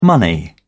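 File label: "money", English, British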